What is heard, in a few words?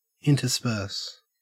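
"intersperse", Australian English; pronunciations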